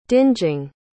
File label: "dingeing", pronounce